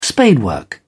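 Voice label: "spadework", English, British English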